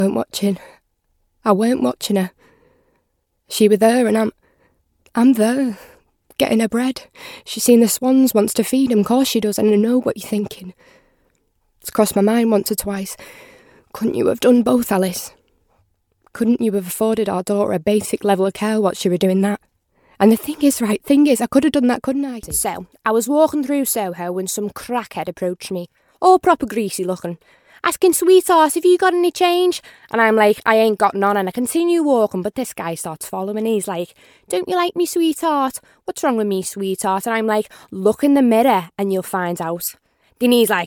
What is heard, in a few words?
Drama; home studios